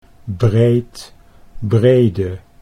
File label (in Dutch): "breed brede", Dutch